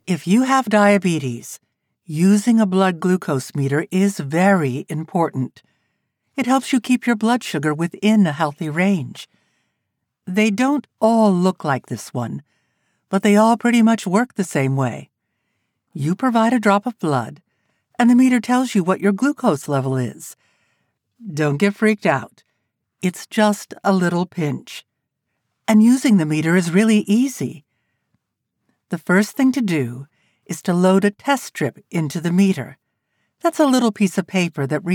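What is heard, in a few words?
American English medical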